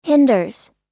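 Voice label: "hinders", US English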